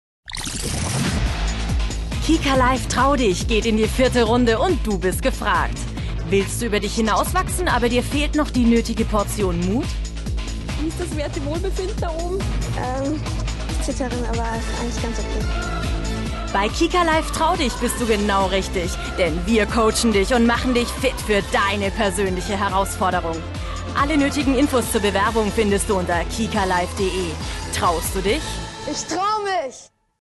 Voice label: Trailer; KIKA